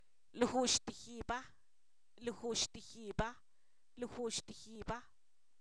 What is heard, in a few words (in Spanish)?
tú solo, Zapoteco, san miguel albarradas; pronombres libres